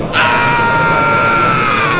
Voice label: distress, babble, sad